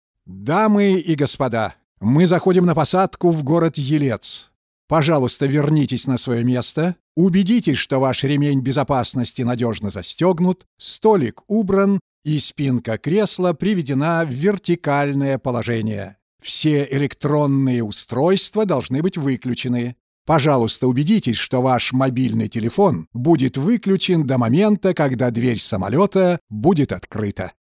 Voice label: russian voice airline announcement, Powerful Warm Male Voice